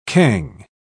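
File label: "cangue", British English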